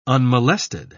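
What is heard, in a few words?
"unmolested", British English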